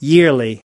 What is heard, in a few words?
"yearly", us